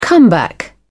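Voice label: "comeback", British English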